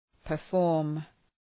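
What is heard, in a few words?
"perform", English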